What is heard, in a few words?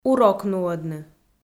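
"урок нуӧдны", Komi, female; kpv audio dict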